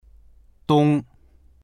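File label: "dong1", Mandarin Chinese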